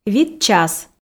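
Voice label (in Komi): "вит час", Komi, female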